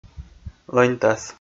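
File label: "лӧньтас", Komi, male; kpv audio dict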